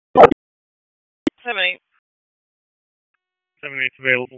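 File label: EMS Dispatch, p25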